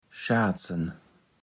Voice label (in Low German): "Schaatsen", Platt